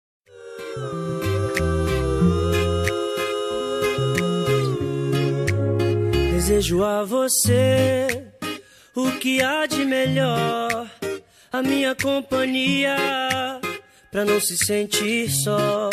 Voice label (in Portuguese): ANIVER FEM ROM, Telemensagem de Aniversário Romântico – Voz Feminina; AMOR, DESCOBRI Q HJ SOU A PESSOA MAIS FELIZ